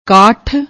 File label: "kath", Punjabi; ਕਾਠ